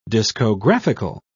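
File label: "discographical", English, British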